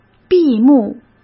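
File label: "闭幕", Mandarin Chinese; chinese-flashcards; hsk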